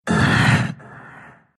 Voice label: pain, ru; Dota 2

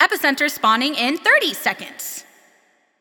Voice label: Announcer Survival Begin

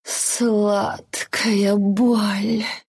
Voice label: attack, ru; dota2

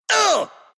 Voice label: pain, ru; dota2

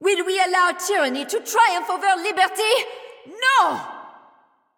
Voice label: French, Kill Streak; Smite; French Athena

